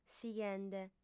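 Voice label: "sighende", female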